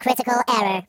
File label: "Drat", robot voice; hedgewars